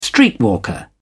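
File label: "streetwalker", English, British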